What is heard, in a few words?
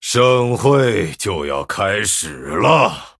Male Night Elf Start, zh